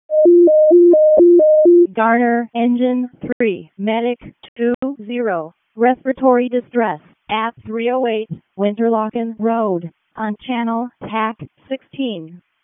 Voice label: EMS ALERT, p25